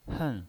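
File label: "hen", fourth tone, pinyin voice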